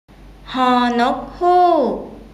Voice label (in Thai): "ฮ นกฮูก", Thai